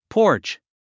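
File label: "porch", English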